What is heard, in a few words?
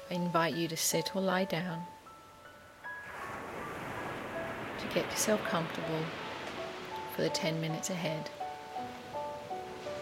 Ocean Cleanse Meditation